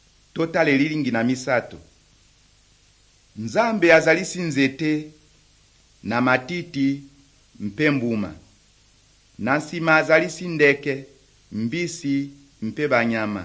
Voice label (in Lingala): good news lingala west, drama